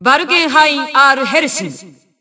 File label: System Voice; BBCP; Bullet